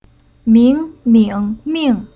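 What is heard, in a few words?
"Ming", Chinese syllable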